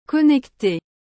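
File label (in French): "connecter", French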